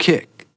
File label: LoFi Words, LoFi Vocals